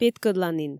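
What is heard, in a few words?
"петкӧдланін", Komi, female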